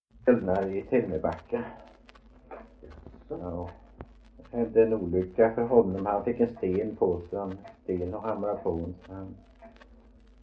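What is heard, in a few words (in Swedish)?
Veddige Halland, Svenska sagor dialekter; Folkminnen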